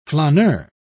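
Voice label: "flaneur", English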